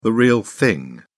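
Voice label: "real thing", British English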